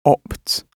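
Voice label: "opts", British English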